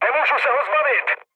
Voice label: aircraft damaged, speech cze, mood low; War Thunder

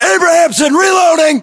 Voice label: inform reloading, battlechatter us; localized english

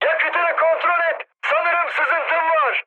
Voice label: tank damaged, mood high, speech tur; War Thunder

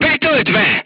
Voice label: voice message charged, crew tanks hungarian

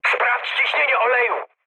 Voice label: damaged oil mood high, speech pol; War Thunder

